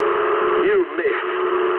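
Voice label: English bomb failure mood low; War Thunder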